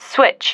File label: "switch", female